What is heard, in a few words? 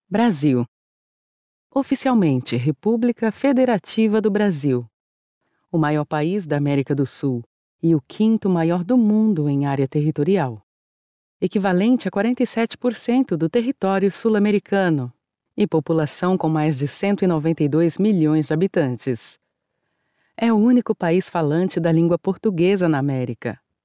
portuguese brazilian documentaries